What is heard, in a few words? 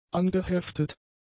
"angeheftet", German; adjectives